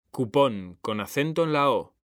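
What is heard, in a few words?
"CUPÓN", Spanish; mekanta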